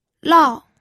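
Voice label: "lao", fourth tone, pinyin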